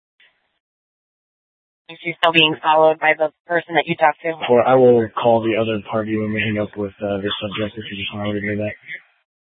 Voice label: Scottsdale PD Sth Dispatch, scanner